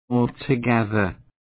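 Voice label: "altogether", British English, male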